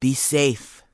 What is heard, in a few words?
Orc Female Standard NPC Farewell